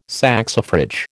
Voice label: "saxifrage", American